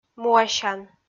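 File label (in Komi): "муасян", Komi, female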